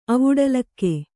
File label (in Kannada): "ಅವುಡಲಿಕೆ", Kannada